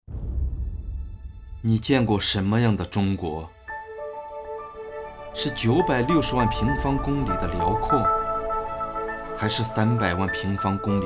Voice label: 记录片航拍中国

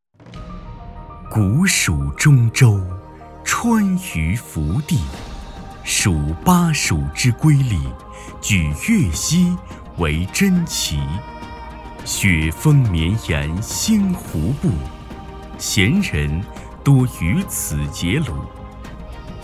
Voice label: 宣传类 城市宣传

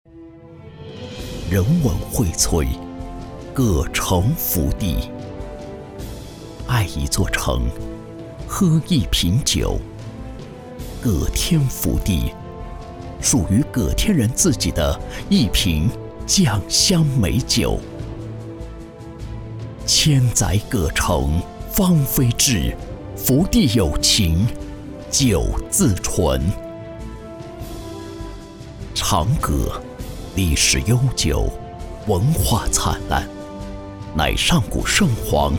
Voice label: 酒类宣传片

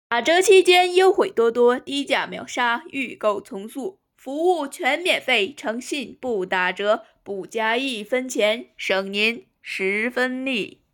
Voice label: 促销

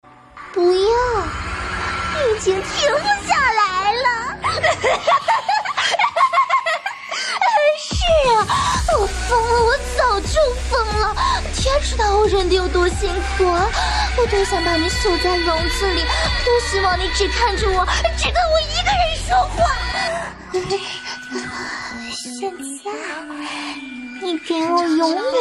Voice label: 萝莉病娇

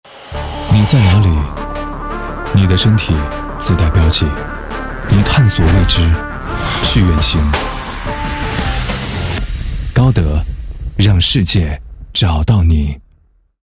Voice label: 高端 男 洋气 时尚; 高德

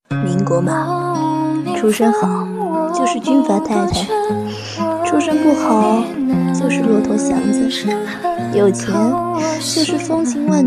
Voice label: 民国 配音

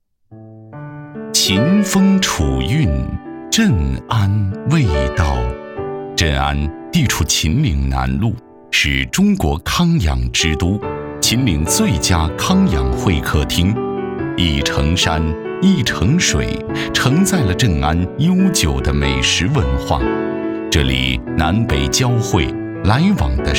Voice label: 抒情纪录

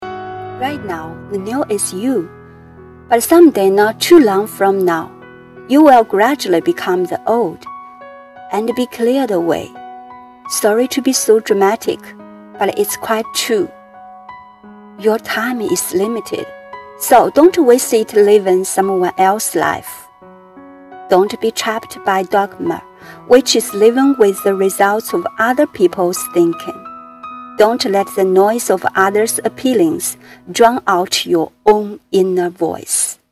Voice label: 英语朗读

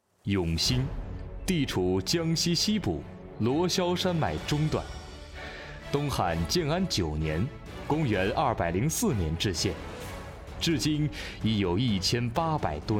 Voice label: 宣传片大气

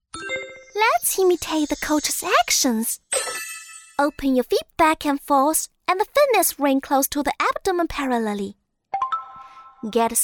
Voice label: 英文游戏

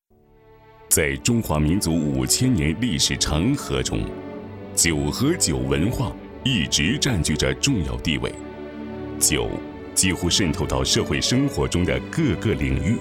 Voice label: 产宣 酒文化

